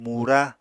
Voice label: "mura", Apatani; Household Items

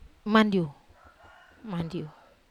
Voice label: "mandiu", Wamesa

